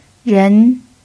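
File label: "ren2", Chinese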